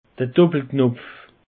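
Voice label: "doppelknopf", Alsatian; peche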